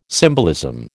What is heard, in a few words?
"symbolism", American